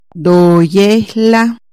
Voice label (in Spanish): Pásale entra, Chontal